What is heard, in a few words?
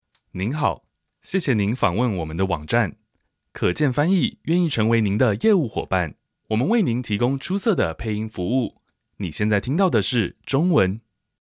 chinese male